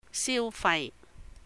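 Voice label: "siù fai", consumption, spending, Cantonese; 消費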